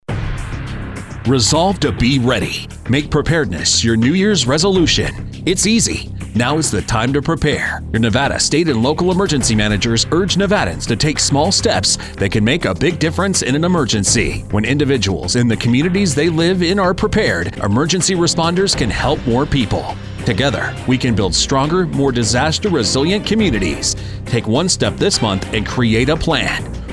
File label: PSA English Radio